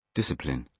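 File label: "discipline", British English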